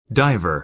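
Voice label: "diver", American English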